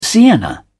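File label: "sienna", British English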